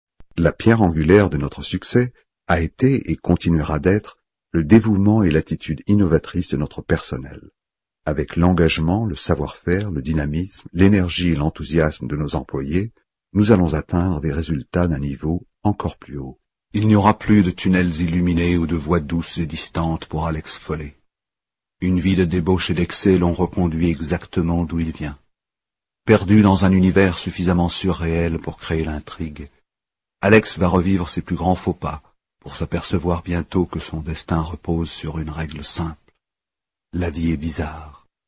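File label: French male voice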